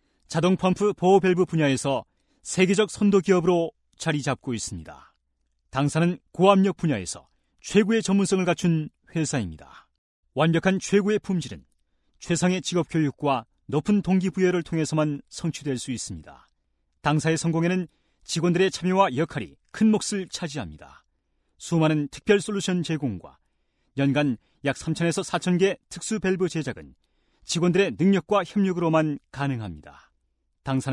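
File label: Korean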